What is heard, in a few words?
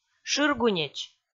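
"шыргунеч", Komi, female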